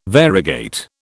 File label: "variegate", British